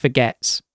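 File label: "forgets", British English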